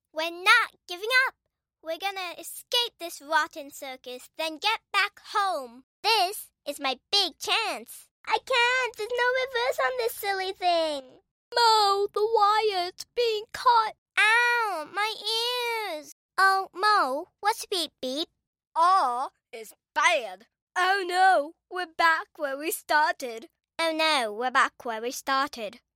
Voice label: Animation Voices

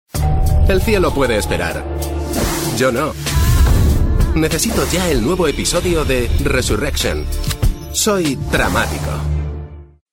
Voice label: TV Spots

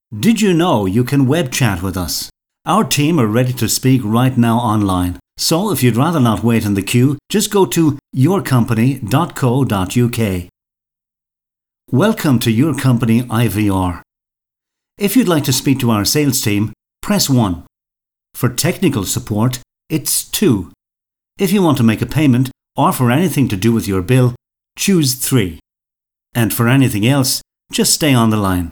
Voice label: Business Voice; Premier Business Voice

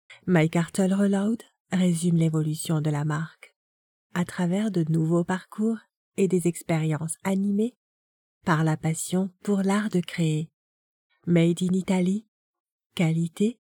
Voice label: corpotate

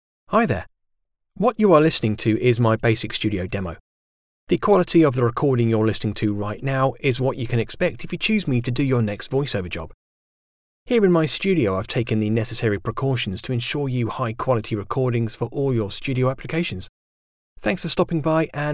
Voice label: DRY

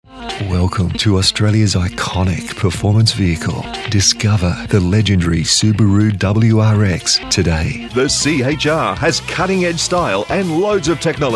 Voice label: Radio

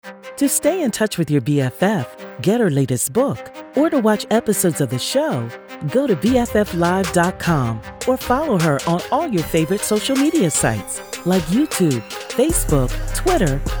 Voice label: Host Promo dark hip hop beat

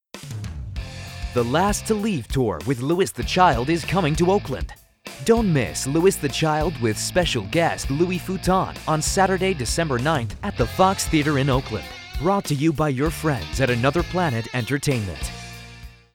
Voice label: Radio US

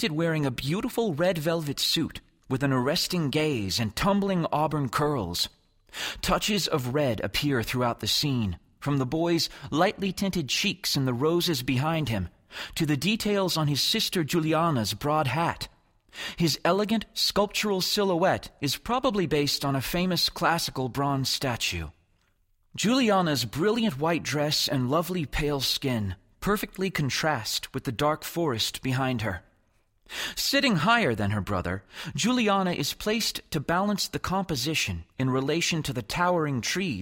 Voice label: Children

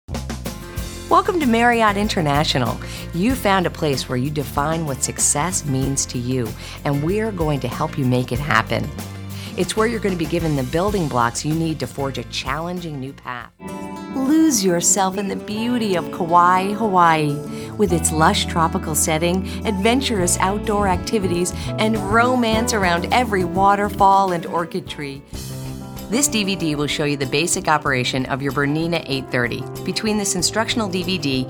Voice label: Narration

